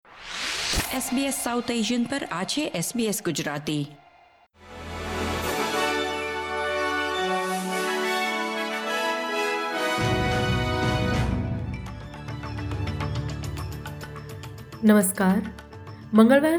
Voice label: gujarati news bulletin; SBS Gujarati Australian update: 24 June 2025